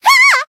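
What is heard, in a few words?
damaged, Japanese; myrus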